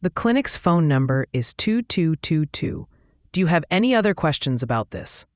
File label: question english